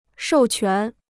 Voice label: "授权", Chinese

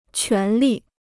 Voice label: "权利", Chinese

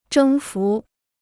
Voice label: "征服", Chinese

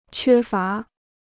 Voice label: "缺乏", Chinese

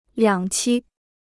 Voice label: "两栖", Chinese

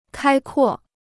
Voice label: "开阔", Chinese

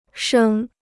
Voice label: "升", Chinese

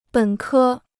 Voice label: "本科", Chinese

